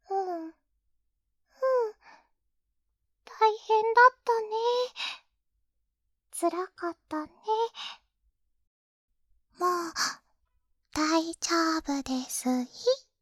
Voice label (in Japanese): 励ましボイス